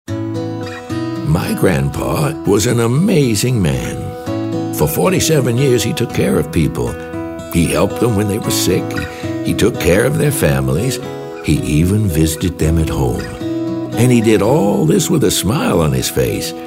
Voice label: caring